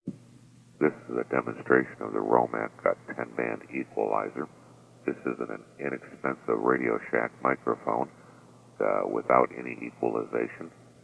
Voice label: Radioshack Dynamic